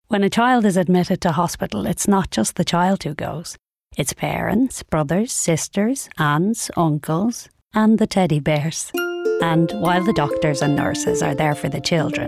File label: commercial